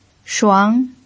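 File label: "shuang", Mandarin Chinese pinyin, second tone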